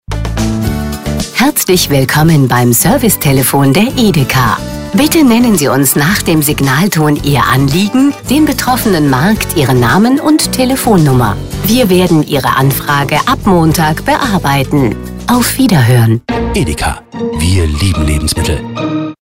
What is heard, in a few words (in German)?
telefonansagen; edeka